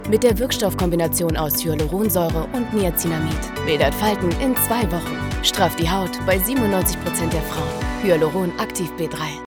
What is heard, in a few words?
Digital Spots, Commercial (Werbung), German; Avène Hyaluron Activ B3